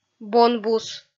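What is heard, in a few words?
"бонбус", Komi, female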